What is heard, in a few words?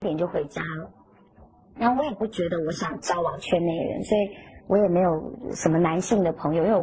interview